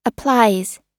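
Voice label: "applies" US English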